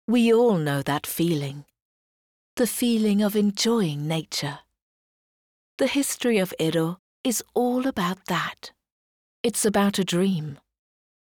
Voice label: ADVERT, ENGLISH UK FEMALE; commercial

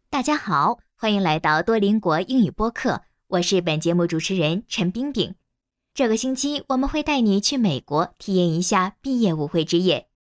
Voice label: CHINESE FEMALE

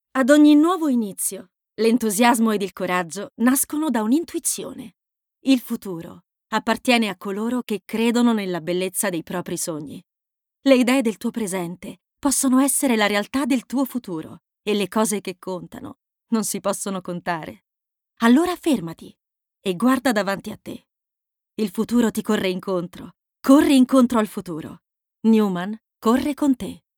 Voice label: Spot, ITALIAN FEMALE; commercial

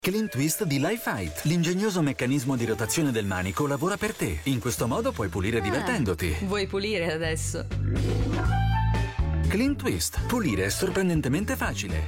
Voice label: COMMERCIAL, Italian, male

